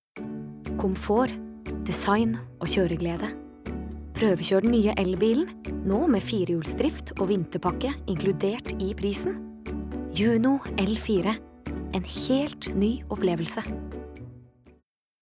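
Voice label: no commercial, Norwegian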